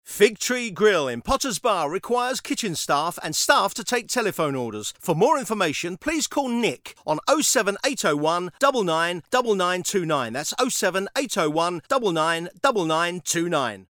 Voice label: grill ad